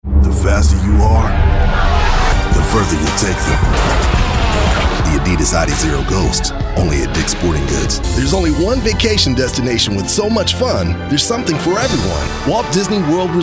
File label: Commercial; Commercial Demo